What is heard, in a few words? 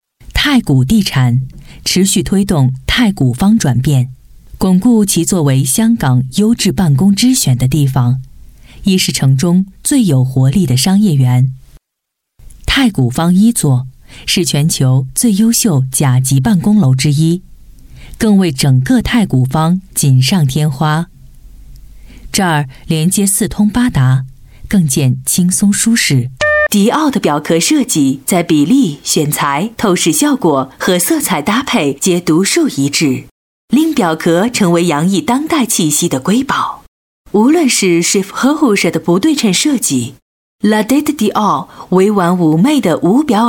chinese voice over female commercial